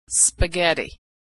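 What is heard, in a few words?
"spaghetti", American English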